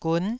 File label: "gun9", taiwanese syllable